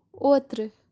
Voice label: "otru" day before yesterday kashmiri

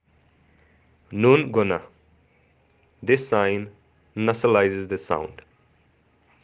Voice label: "nungunah", kashmiri

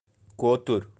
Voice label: "kotur" pigeon, Birds of kashmir

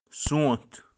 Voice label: "sonth" spring, kashmiri

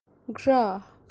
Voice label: "Graah" Crocodile in kashmiri

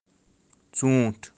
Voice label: "tsunth", kashmiri

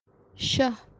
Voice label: "shah" breath breathing kashmiri king

